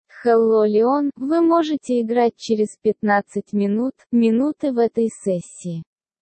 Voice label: speech sample ru